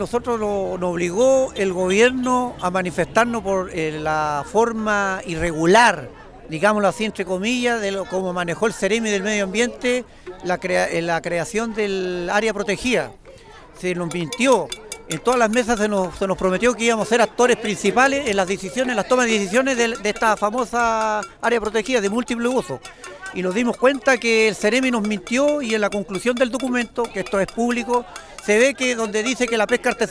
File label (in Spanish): vocero del sindicato de Pescadores; Totoralillo Norte